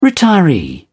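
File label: "retiree", British English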